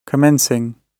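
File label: "commencing", British English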